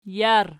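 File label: "jar" nominative, Archi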